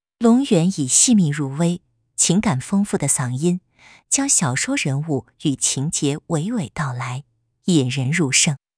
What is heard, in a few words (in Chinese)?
温暖治愈女; 中、英; longyuan v2